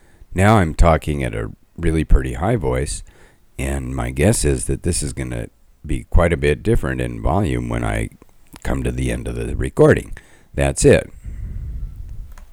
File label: normal voice; Levelated; levelator